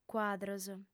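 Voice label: "cuadros", female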